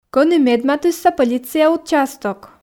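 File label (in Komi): Komi, кӧні медматысса полиция участок, female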